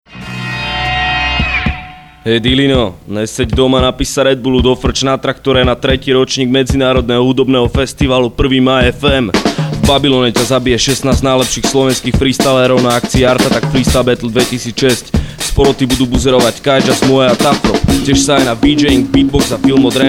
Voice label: radio spot